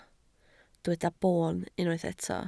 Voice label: "boon", Welsh